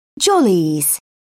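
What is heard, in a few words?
"jollies", British English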